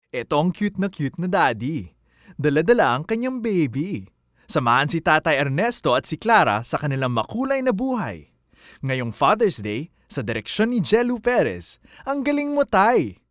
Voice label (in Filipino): Tagalog (Energetic, Funny)